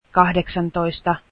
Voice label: eighteen, Finnish